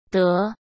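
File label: "德", Mandarin Chinese; chinese-flashcards; hsk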